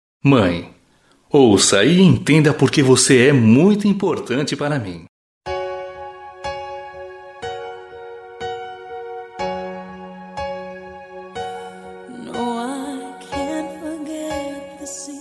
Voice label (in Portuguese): pai como mae masc, voz masculino; Dia dos Pais; para mae que e pai